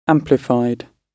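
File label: "amplified", British English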